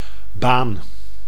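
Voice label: "baan", Dutch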